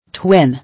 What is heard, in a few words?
"Twin", English